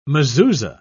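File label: "mezuza", British English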